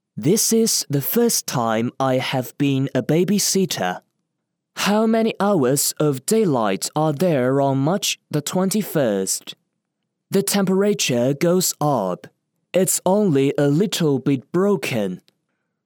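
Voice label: english chinese male; dictor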